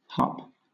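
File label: "harp", British English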